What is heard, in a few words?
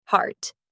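"heart", voice; matching game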